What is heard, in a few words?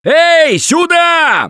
help, russian; Gambler